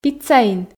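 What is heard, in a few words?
"пиццаин", Komi, female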